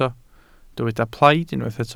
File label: "plaid", Welsh